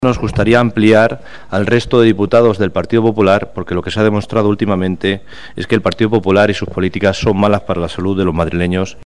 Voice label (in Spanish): noticias